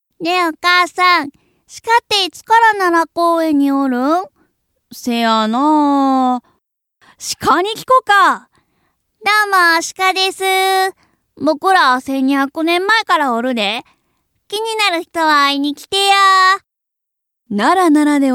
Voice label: Japanese Movie for Sightseeing in Nara Character

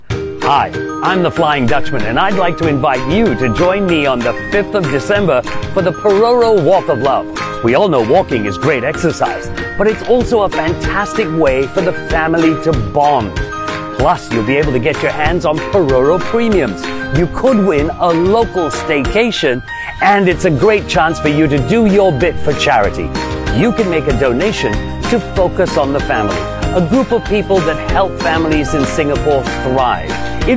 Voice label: Asian English; Pororo

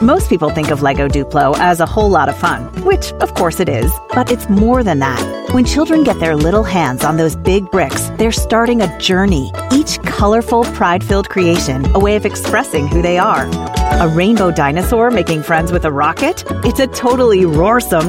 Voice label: US English; Lego Duplo

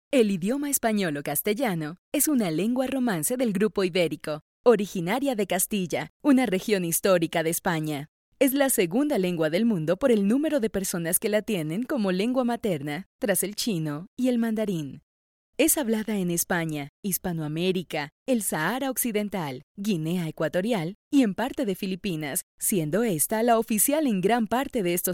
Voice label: Latin Spanish Colombia Female Voice

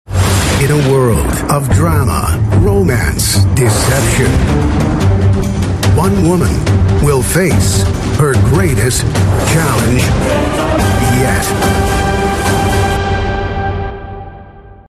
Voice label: US English TV Promo

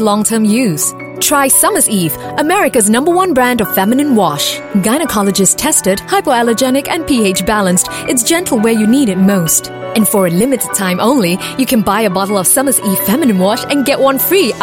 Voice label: Intimate, English Singaporean Female; SummerEve

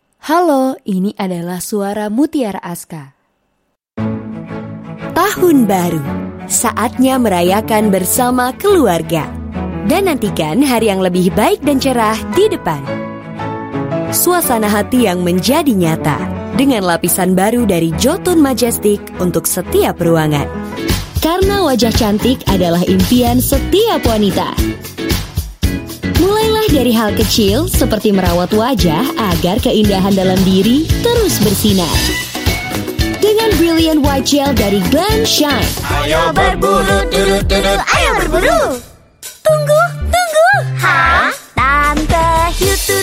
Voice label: Bahasa Indonesia Voice Sample

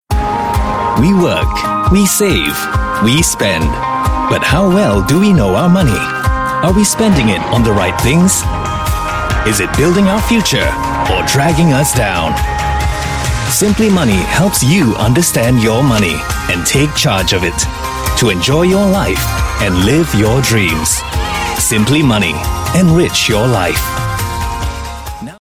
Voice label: English Singaporean Male, Money Channel VO; Simply Money Channel

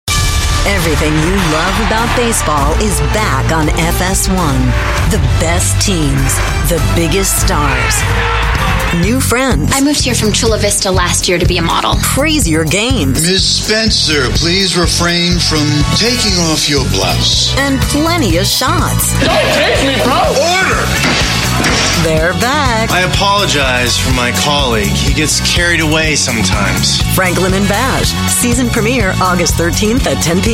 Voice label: US English Promo

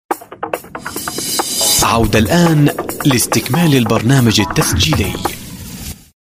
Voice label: Arabic Male

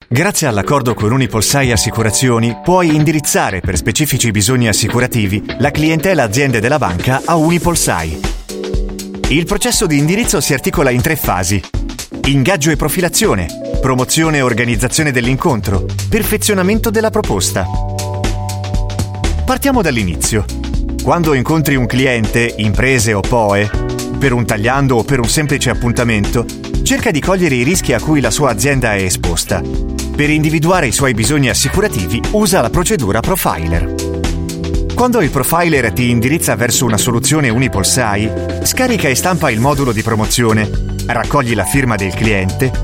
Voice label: Italian

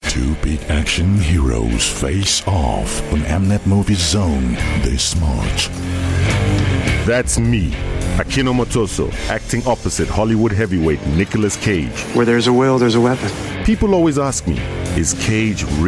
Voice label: African English, African Male, Promo

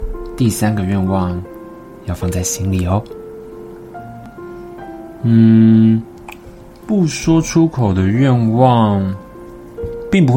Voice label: Taiwanese

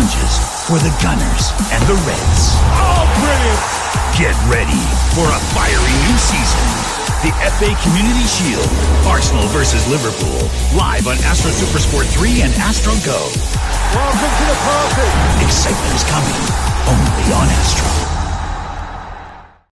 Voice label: US English Sports

